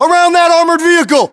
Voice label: US landmark near armored vehicle, battlechatter, english